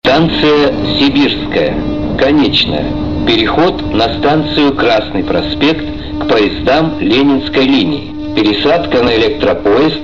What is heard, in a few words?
male